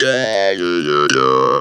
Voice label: "YEAH", funky vocals; USB Soundscan vol.18; AKAI; TB MELODY

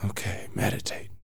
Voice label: TALK, Vocal, SCI-FI; 5 SCI-FI CHZ

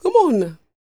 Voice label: DIALOG, R&B Dance, FEM. SPOKEN

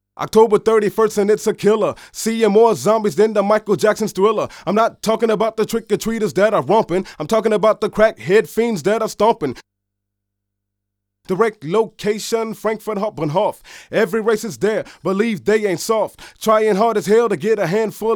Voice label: FFM Bahnhof, Rapsody Vocals; Masterbits CLIMAX 6

